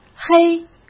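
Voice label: "黑", Mandarin Chinese; hsk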